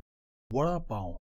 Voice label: "vada pav", Indian; pronunciation